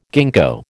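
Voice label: "ginkgo", American English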